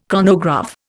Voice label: "chronograph", Indian